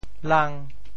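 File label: "lang5", Teochew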